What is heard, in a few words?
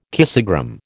"kissogram", American